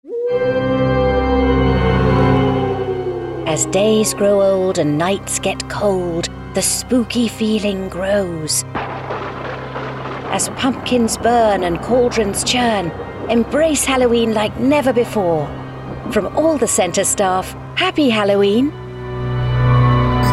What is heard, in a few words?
halloween playful pumpkin